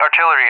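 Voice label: "artillery", English, USA; DCS; Allied Flight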